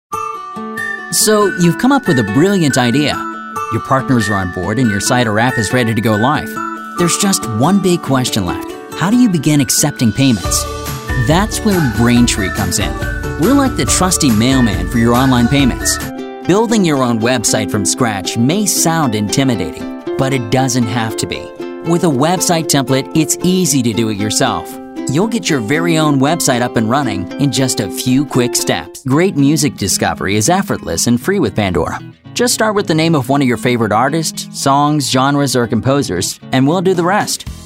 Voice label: Explainer